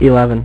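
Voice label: "eleven", male; MacCribbage; InterStellar MacCribbage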